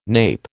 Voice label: "nape", American